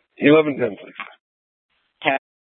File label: Scottsdale PD Sth Dispatch, scanner